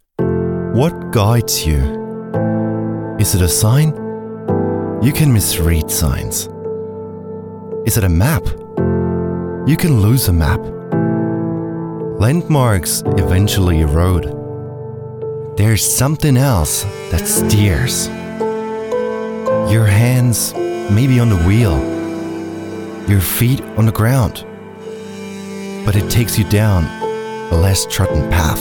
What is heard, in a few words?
travel inner voice en